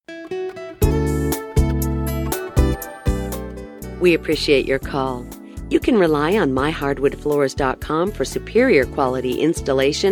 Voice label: wood flooring hold message